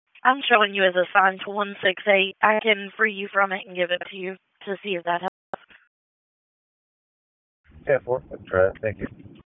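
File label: Police Southwest District Dispatch; Raleigh; wake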